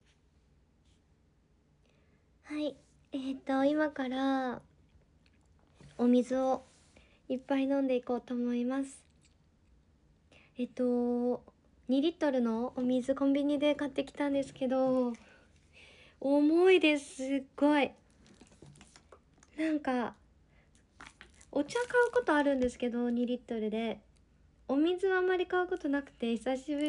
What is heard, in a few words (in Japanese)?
飲み物がぶ飲み雑談, ASMR